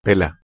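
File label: "pelh2", Proto-Indo-European; eastern origins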